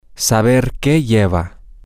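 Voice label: to know whats in the, Spanish, male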